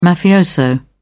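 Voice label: "mafioso", British English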